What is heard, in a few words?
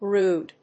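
"rood", English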